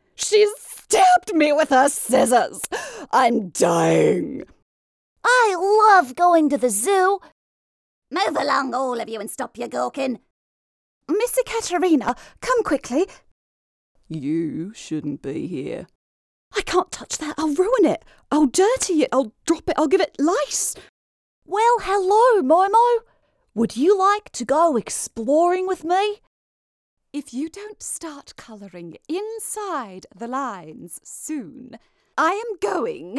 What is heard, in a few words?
Character Reel